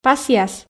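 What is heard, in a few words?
"пасъяс", Komi, female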